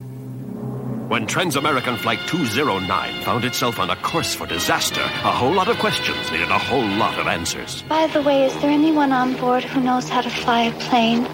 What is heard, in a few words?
Radio Advert; Radio Hallam; Airplane